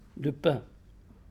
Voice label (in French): Pain mot; boulangers; Radio Berenkuil; La Cage